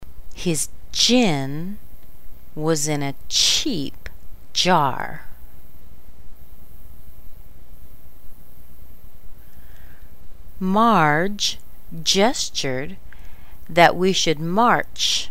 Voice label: CH J sentences slow, American accent